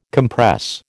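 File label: "compress", American English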